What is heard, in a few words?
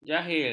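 "jahil", Malay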